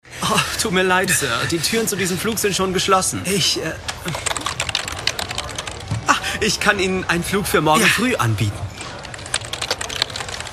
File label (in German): SYNCHRON; Attendant; FÖRMLICH